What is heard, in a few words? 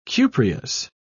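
"cupreous", British English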